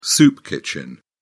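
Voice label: "soup kitchen", British English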